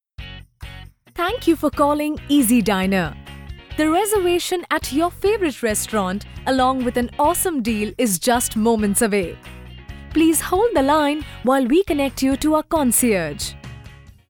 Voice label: english indian ivr, voice actors; professionelle indische Sprecherin